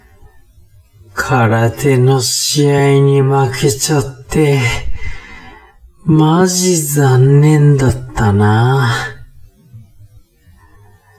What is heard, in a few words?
man